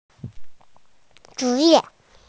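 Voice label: "occupation", Mandarin, hearing-impaired; zhi2ye4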